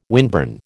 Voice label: "windburn", American